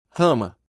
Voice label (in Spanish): Rama en portugues